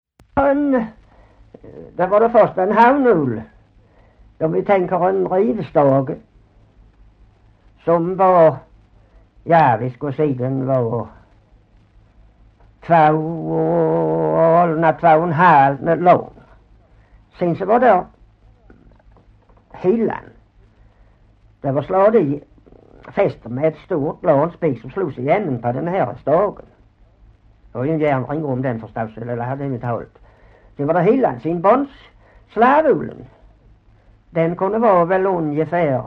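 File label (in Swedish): Hörja Skåne, dialekter; Folkminnen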